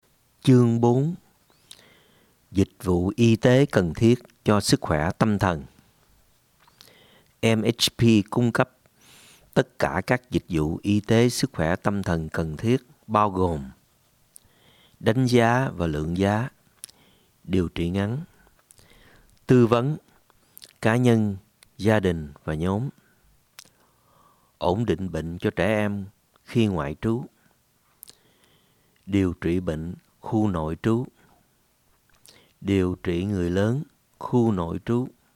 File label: Viet; Member Handbook